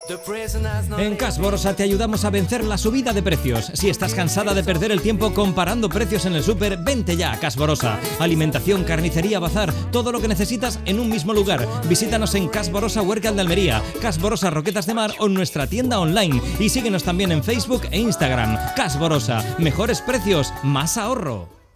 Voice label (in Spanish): Cuña genérica; ALVAREZ LUCHA SL; CASH BOROSA (ROQUETAS Y HUERCAL)